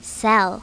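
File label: "sell", English; My Talking Dictionary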